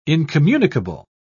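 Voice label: "incommunicable", British English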